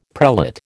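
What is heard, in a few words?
"prelate", American English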